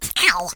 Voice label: Ooff, Hill Billy; hedgewars